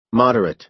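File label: "moderate", American English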